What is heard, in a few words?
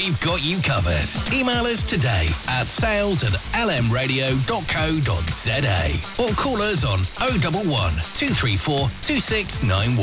Radio advertising 702.00 am; LM Radio; kiwisdr